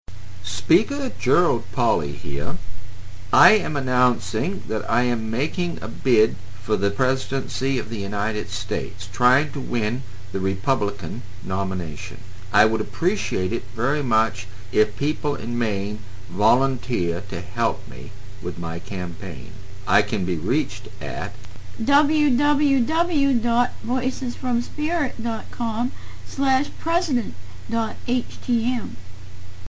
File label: Maine Radio Ad